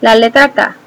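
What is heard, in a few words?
k filled desc, Spanish; alphabets; lowercase; tuxpaint-stamps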